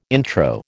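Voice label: "intro", American English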